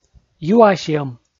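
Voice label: "юасьӧм", Komi, male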